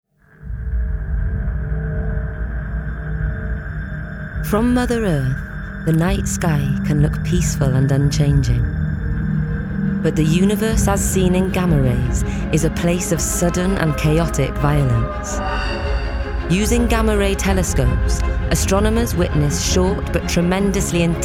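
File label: DOCUMENTARY, A rich and velvety Welsh voice.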